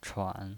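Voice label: "chuan", Mandarin Chinese pinyin voice, third tone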